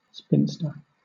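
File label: "spinster", British English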